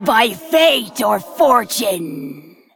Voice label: Female Undead Play

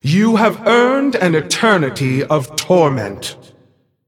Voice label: Female Titan Keeper Play

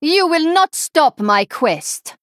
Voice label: Female Draenei, Threaten

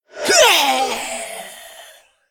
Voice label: Female Darkglare Death

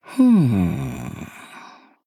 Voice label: Female Troll Thinking

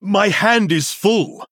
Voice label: Male Human ERROR HAND FULL

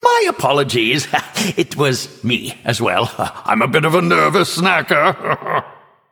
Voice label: Male Nightborne

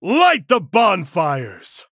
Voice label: Male Human; Arthas; FIRE FESTIVAL

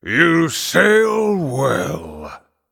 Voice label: Male Night Elf Well Played

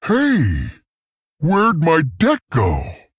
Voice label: Male Tauren No Cards

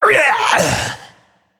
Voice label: Male Naga Death

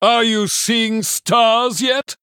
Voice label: Male Human Knockout; Bacon Shop

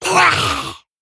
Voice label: Male Kobold Death

